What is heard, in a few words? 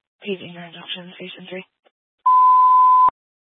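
Fire Dispatch, scanner audio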